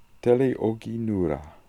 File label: "Teleoghinoora", pronounciations; Fourth Way; Beelzebub